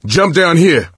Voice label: misc directional, coach, survivor voice

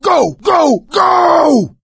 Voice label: emphatic go, survivor voice; source engine; left 4 dead 2

